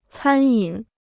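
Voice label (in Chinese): "餐饮" Chinese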